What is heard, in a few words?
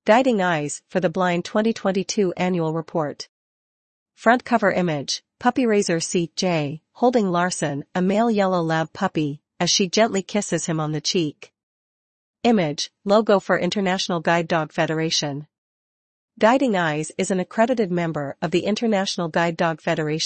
voiceover